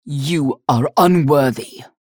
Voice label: chatter positive; galena; unholy paladin; frag